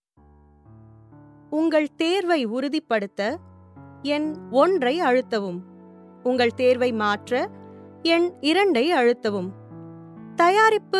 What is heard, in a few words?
IVR Tamil